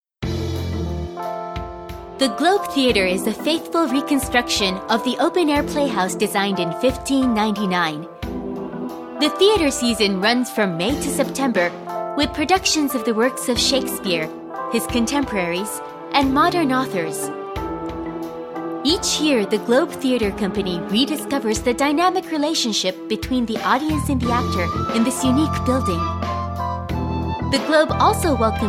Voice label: english